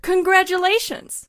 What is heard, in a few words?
"congratulations", female, announcer